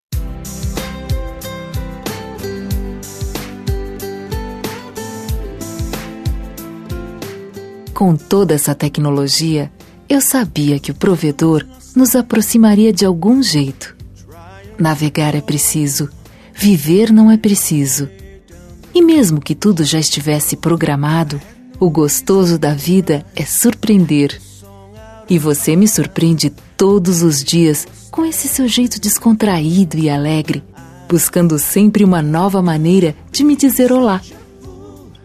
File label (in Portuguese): Namorados pra Namoro Virtual F